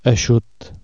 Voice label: dry, Catalan Consonants

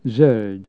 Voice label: green, hungarian Consonants

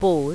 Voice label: last year, gujarati

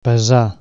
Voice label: "to weigh", Catalan

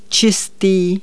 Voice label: clean, Czech

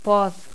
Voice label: dental nonsibilant fricative, toda

